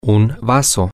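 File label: cup, Spanish, male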